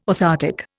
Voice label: "orthoptic", Indian

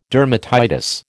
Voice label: "dermatitis", American

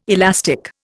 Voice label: "elastic", Indian

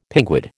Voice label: "pinguid", American

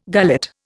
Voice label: "gullet", Indian

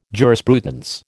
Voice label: "jurisprudence", American

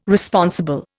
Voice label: "Responsible", Indian

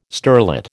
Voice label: "sterlet", American

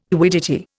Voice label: "quiddity", Indian

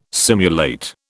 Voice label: "simulate", British

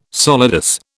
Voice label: "solidus", British

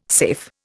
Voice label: "safe", Indian

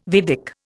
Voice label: "Vedic", Indian

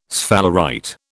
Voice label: "sphalerite", British

